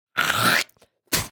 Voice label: Speech Quick Commands Caramba; World Of Warships